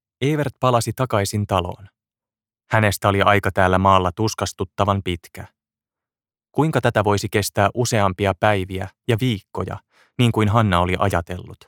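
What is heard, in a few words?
Finnish Audiobook Male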